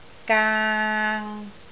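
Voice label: "gaang3", Cantonese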